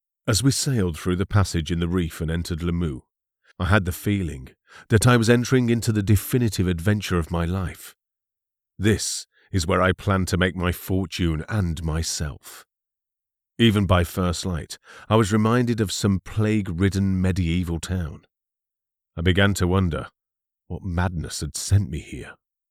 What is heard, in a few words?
english british documentaries